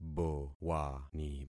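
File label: "bowani", concatenated syllables; Affixing experiment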